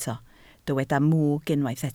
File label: "mwg", Welsh